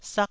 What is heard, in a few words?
"sak1", taiwanese syllable